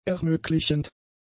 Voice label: "ermöglichend", German; adjectives